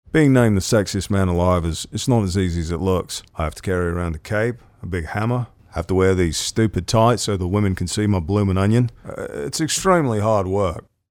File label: impression; Chris Hemsworth